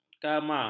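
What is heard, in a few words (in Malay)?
"kama", Malay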